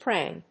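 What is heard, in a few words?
"prang", English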